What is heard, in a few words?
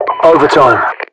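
British overtime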